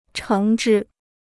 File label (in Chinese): "橙汁", Chinese